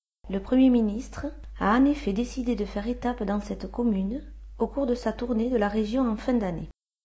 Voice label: Sud France phrase